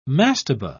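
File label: "mastabah", British English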